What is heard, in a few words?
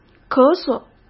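"咳嗽", Mandarin Chinese; chinese flashcards; hsk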